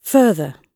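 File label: "further", British English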